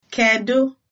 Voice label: how are you, igbo

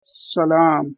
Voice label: hello, pashto

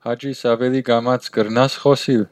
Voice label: slowly, armenian western

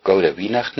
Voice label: christmas, low saxon

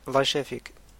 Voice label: get well soon, arabic

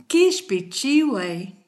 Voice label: sorry, kichwa

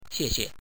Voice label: "thanks", Mandarin

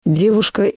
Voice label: girl, russian; девушка; Rosetta Stone Russian

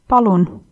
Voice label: please, estonian

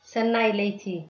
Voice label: good night, tigrinya

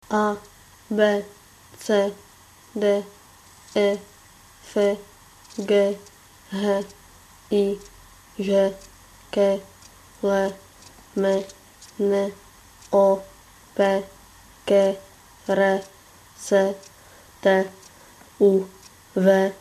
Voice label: ido abc

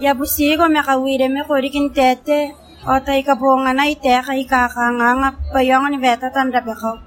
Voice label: kiribati

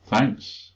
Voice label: "thanks", English

